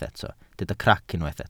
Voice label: "crac", welsh

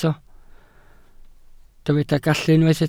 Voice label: "gallu", Welsh

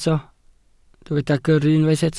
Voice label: "gyrru", Welsh

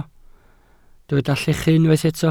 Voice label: "llechu", Welsh

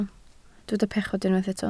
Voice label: "pechod", Welsh

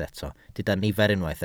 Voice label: "nifer", Welsh

